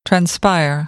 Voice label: "transpire", American English; ɚ - əɹ